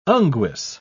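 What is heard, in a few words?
"unguis", British English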